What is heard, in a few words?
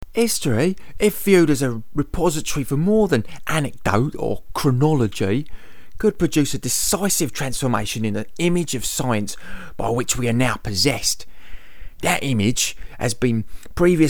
cockney